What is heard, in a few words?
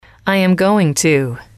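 "I am going to", English, female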